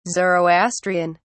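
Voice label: "zoroastrian", British English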